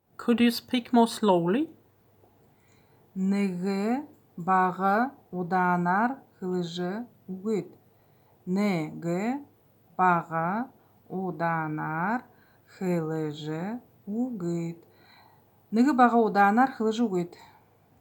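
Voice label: Could you speak more slowly, Buryat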